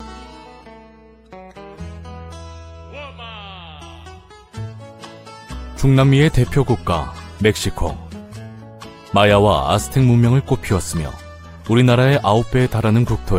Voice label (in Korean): 다큐멘터리 건조한; 나는 꼬레아노스입니다